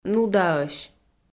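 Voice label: "нудаӧсь", Komi, female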